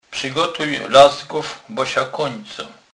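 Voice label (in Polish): "lazga", Kurpie dialect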